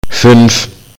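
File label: "five", German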